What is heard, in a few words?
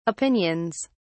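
"opinions", British English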